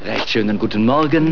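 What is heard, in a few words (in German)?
"Guten Morgen" German; Inside Multimedia 1996 November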